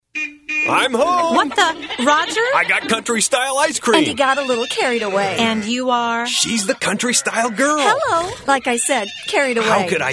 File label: Radio; Country Style; New Location